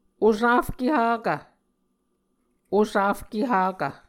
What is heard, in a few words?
"osafke hakv", Muscogee